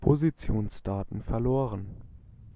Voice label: Gps Lost, German male